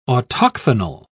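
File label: "autochthonal", British English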